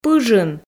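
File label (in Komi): "пыжын", Komi, female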